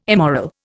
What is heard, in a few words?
"immoral", Indian